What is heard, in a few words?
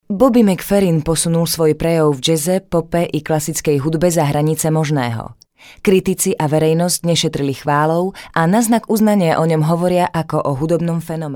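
Slovak, female, narration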